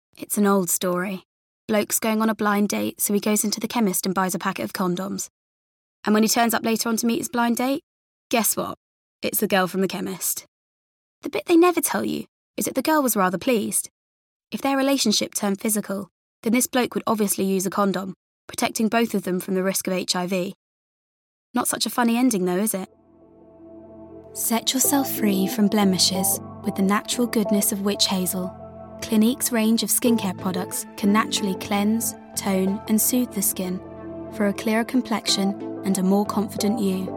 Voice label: Commercial